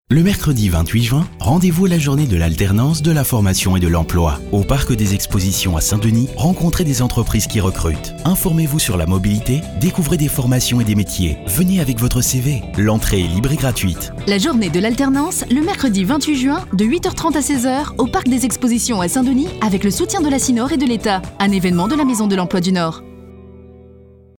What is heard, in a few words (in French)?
Spot radio; communication 2023